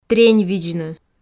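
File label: "треньвидзны", Komi, female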